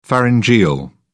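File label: "pharyngeal", English, British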